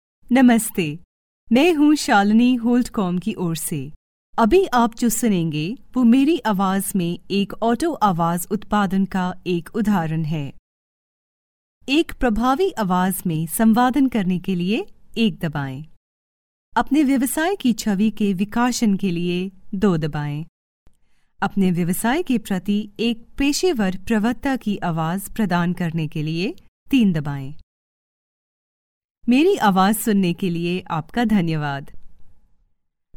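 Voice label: Hindi, IVR; voice demos; GoLocalise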